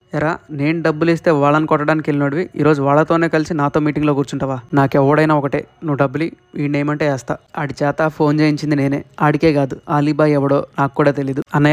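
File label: E-learning Telugu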